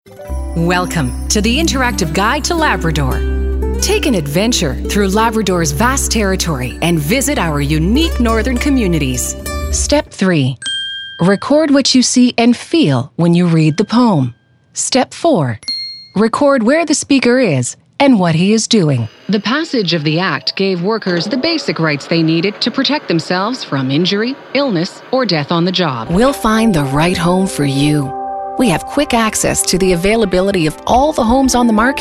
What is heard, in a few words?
Narration; TaDa